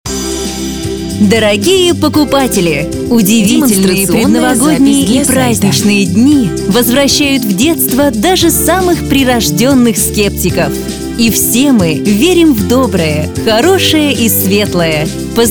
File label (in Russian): Поздравление С Новым Годом женский